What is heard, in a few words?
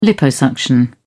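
"liposuction", British English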